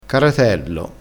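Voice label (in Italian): "caratello", dialettale crespinese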